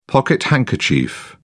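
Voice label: "pocket handkerchief", British English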